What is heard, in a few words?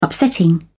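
"upsetting", British English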